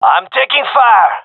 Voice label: taking fire, gendarmerie male; vo

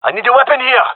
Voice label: request weapon, gendarmerie male; vo

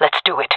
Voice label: agree, fem; player vo jungle fem

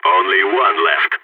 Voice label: one enemy left, balkan; vo

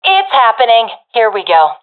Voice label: attacking, professional fem; vo; Counter Strike Model Pack; CS:GO

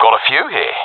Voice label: sees multiple enemies, professional; vo; Counter Strike Model Pack; CS:GO

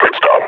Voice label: announce kill, combine grunt

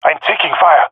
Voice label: taking fire, jungle male epic; Source Model Packs; Counter Strike Model Pack; CS2

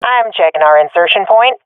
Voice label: at our spawn, swat fem; vo; agents; Source Model Packs; Counter Strike Model Pack; CS2

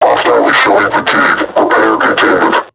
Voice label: taunt, vo combine suppressor; Half Life Alyx

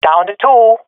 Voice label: enemies left two, swat fem; vo; Counter Strike Model Pack; CS:GO

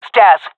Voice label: loc stairs, jungle fem epic; vo; agents; Source Model Packs; Counter Strike Model Pack; CS2

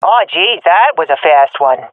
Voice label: round won quickly, swat fem; vo; Counter Strike Model Pack; CS:GO

